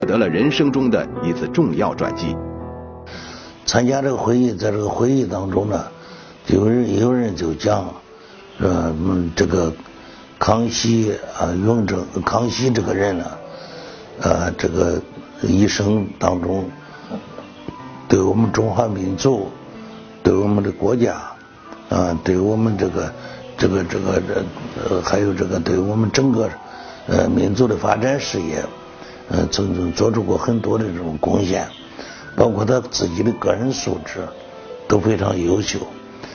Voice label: interview